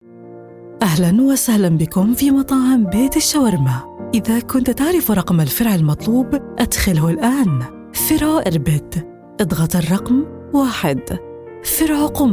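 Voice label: Restaurants interactive voice response; Shawarma House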